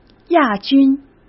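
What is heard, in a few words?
"亚军", Mandarin Chinese; chinese-flashcards; hsk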